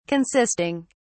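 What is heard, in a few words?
"consisting", English, British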